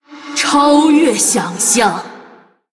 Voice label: Female Blood Elf WOW; ▶超越想象！ ▶Unreal!; zh; 惊叹; 虚空之影瓦莉拉; Valeera Deathknight

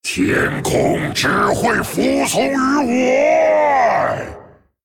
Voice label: Male Dragon; ▶天空只会服从于我！ ▶The heavens bow to ME alone!; 风暴巨龙迦拉克隆; 开场白(镜像); Opening Remark(Mirror)

